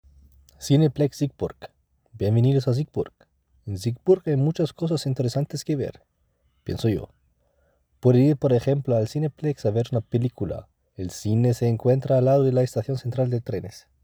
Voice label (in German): Spanisch; Cineplex Siegburg